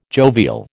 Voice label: "jovial", American

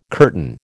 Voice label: "curtain", American

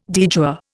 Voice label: "de jure", Indian

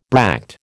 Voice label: "bract", American

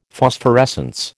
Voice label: "Phosphorescence", American

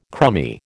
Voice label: "crumby", American

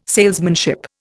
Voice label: "salesmanship", Indian

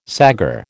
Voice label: "saggar", American

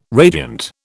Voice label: "radiant", British

